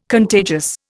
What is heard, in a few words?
"contagious", Indian